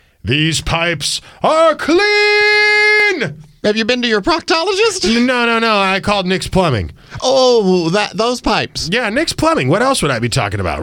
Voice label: RADIO AD; nicks plumbing radio ads